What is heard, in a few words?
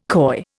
"coy", Indian